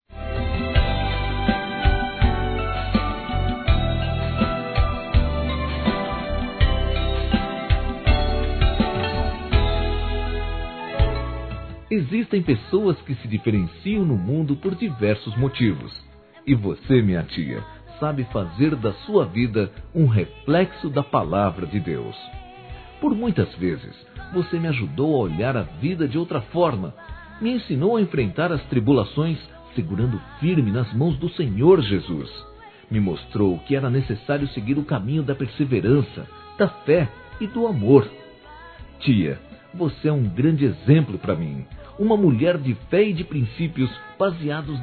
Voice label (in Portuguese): tia m; ANIV. Evangelico